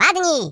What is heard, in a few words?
Take cover, voices, pl; hedgewars; Default pl